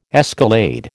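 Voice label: "escalade", American English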